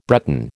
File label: "Breton", American